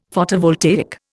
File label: "Photovoltaic", Indian; Dictionary